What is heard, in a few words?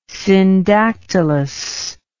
"syndactylus", English word